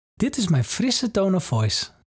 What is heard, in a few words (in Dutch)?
Frisse tone of voice